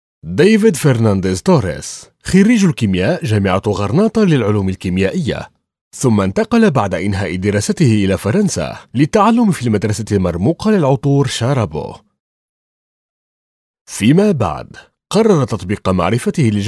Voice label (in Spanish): Masculino